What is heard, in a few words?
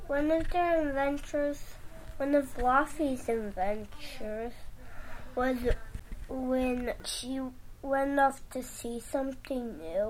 Child